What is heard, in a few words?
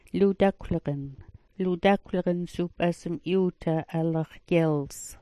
"ludakwlagan", smalgyax